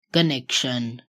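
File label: "connection", English; properties of objects new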